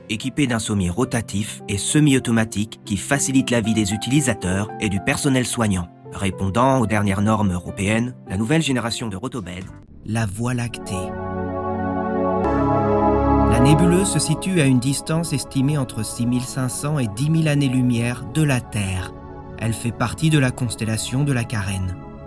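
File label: French narration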